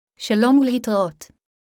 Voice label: Hebrew; Greetings

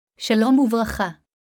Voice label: Hebrew; Greetings

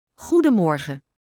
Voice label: Dutch Good morning; Greetings

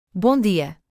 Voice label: Portuguese Good morning; Greetings

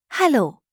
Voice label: German Hello informal; Greetings

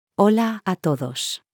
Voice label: Spanish Greetings everyone

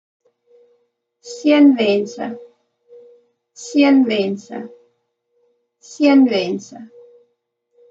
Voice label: Afrikaans Blessings; Greetings

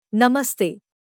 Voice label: Hindi Hello formal; Greetings

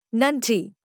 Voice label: Tamil Thank you; Greetings

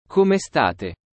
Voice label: Italian How are you plural; Greetings

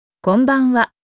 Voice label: Japanese Good evening; Greetings

